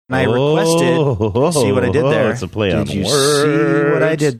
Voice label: saying ohhhh ohho its a play on words singsongy manner